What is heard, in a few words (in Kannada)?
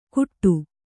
"ಗುಟ್ಟು", Kannada